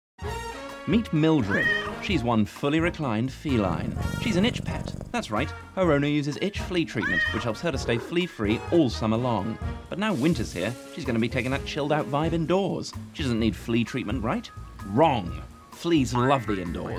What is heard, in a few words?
COMMERCIAL